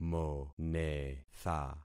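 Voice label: "monetha", concatenated syllables; Affixing experiment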